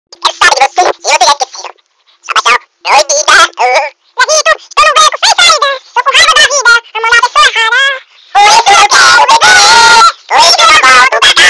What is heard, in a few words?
funny voz engracada